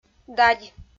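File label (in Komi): "дадь", Komi, female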